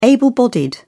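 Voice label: "able-bodied", British English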